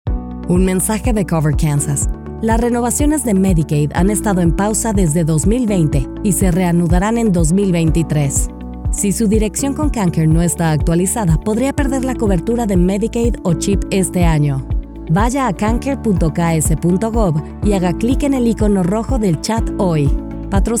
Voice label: Spanish Radio